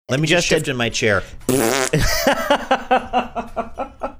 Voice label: saying let me just shift in my chair fart sound